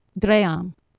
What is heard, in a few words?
"dream", Anglo Saxon